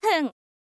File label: "fun", girl; voice